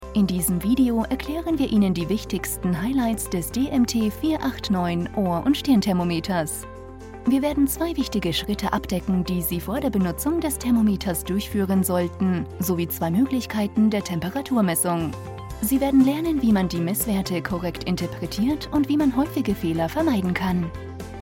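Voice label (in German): German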